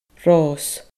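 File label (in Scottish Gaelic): "ros fada", Scottish Gaelic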